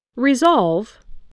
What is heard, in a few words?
"resolve", English